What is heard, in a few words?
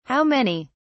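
"how many", British English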